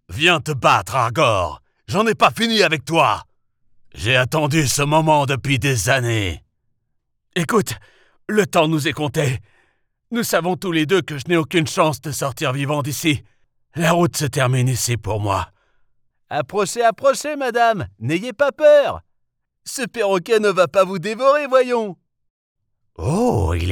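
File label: French male characters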